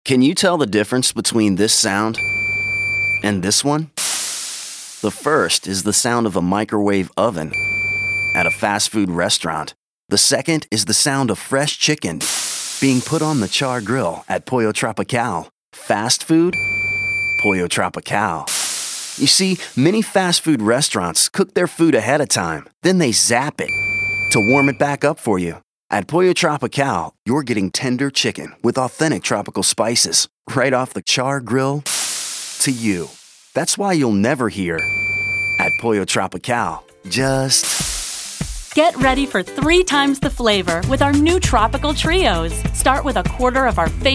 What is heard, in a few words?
Radio; PolloTropical